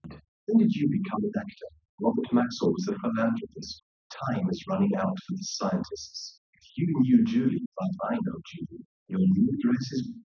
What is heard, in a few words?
male; SiSEC10; underdetermined